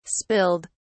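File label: "spilled", British English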